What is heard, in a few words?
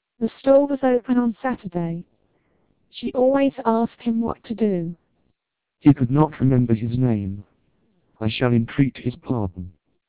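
British speech, TWELP 700 bps vocoder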